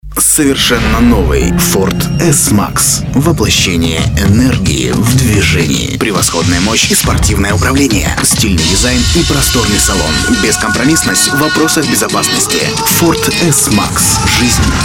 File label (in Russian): reklama ford golos; golos svedenie